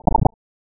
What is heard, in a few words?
TEXAS